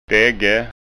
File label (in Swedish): "dagge", skanska